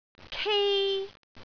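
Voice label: "kei1", Cantonese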